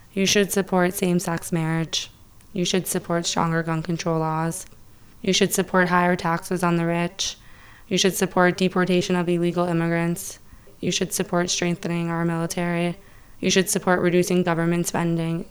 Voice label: female LOW, voice pitch; voice pitch 2018